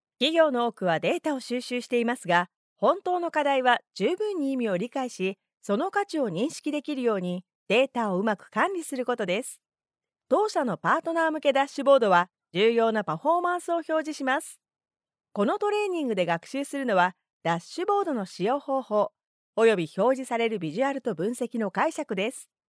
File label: female japanese voice over narrative